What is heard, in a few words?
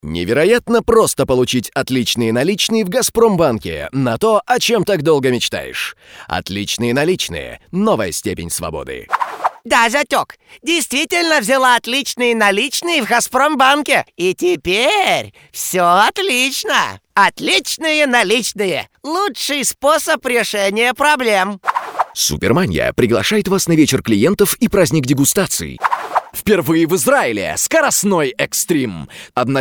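Russian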